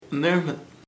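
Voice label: chicken pollo, Chamacoco word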